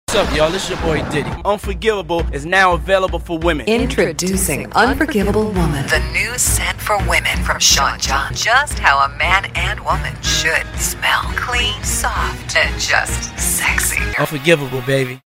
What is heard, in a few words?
fragrance commercial; PDiddy